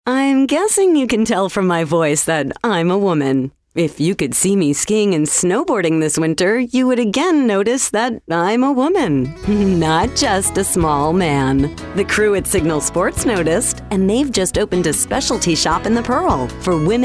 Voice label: Soft Sell